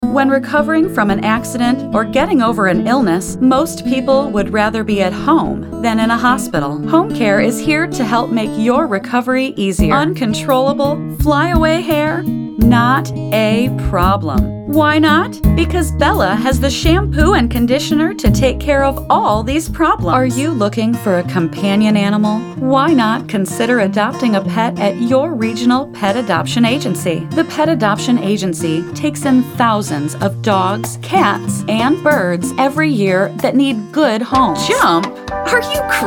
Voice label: commercial, Voice Actor